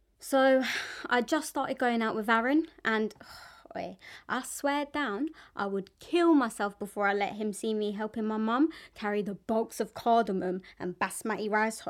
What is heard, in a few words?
Narrative